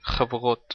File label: female friends in hebrew